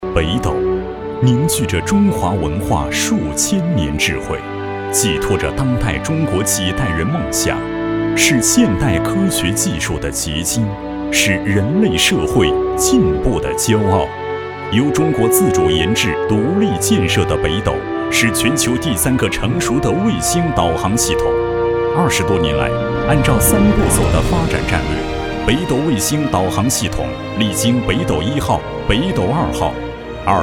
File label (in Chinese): 男, 庄重大气, 北斗卫星